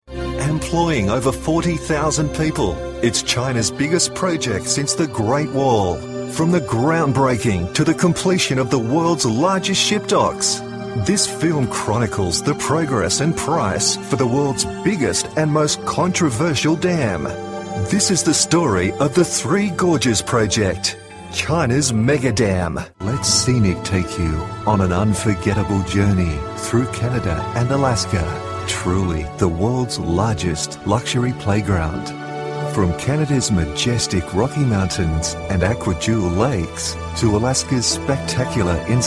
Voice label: Narration Doco; AIR scenic; china dam